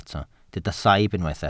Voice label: "saib", Welsh

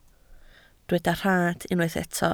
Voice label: "rhad", Welsh

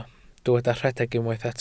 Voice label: "rhedeg", Welsh

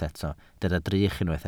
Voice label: "drych", Welsh

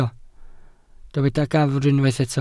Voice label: "gafr", Welsh

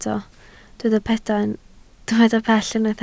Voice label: "pell", Welsh

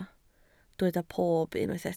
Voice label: "pob", Welsh

